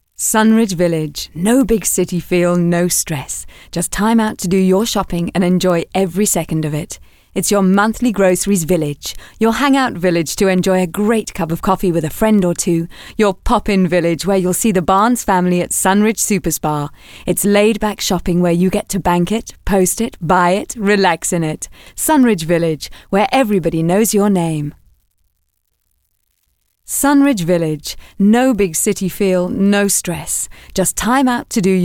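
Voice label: english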